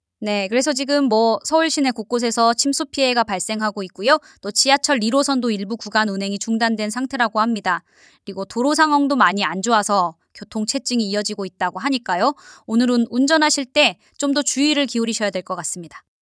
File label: female answer